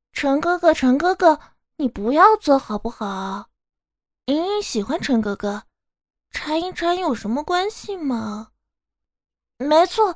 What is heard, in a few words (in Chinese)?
少女音